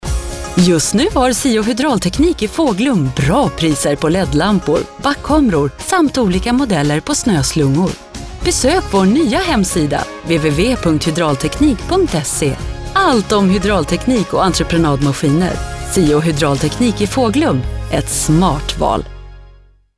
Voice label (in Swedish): reklam